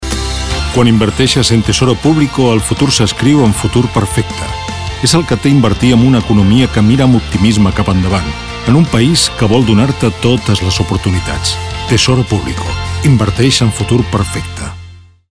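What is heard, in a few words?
Catalan, Commercial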